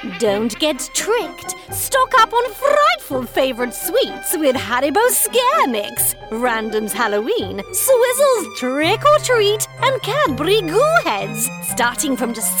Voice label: witch